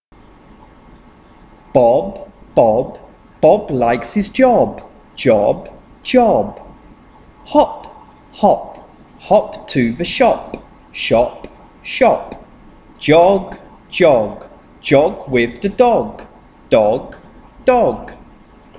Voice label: Rimes Chant ob og op

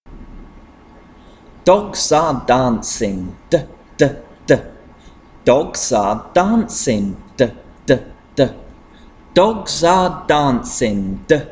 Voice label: Consonant Chant d

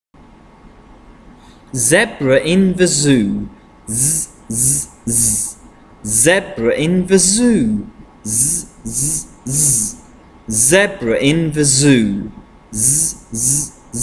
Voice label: Consonant Chant z

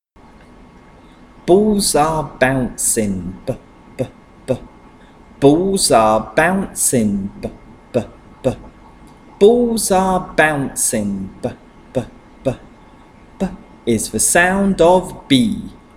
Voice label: Consonant Chant b

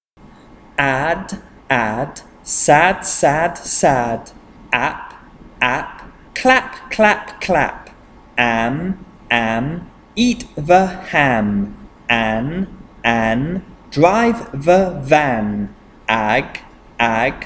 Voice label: Rimes Chant ad ag am an ap

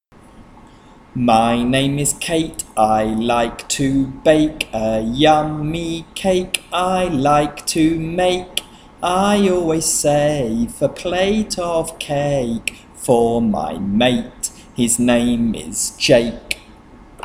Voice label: Medial Long Vowels Chant a_e

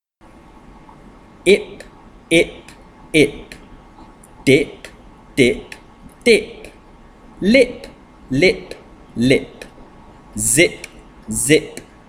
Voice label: Rimes Chant ip

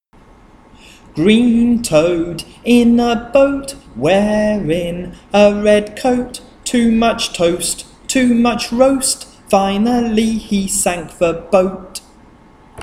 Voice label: Medial Long Vowels Chant oa

